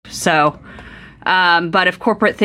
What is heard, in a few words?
voice enhanced